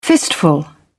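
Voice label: "fistful", British English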